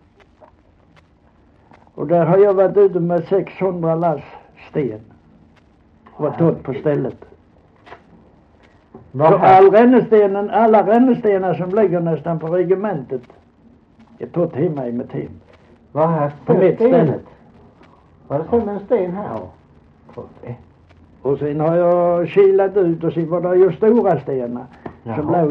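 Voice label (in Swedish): Holm Halland, dialekter; Folkminnen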